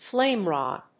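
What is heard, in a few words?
"flame rod", English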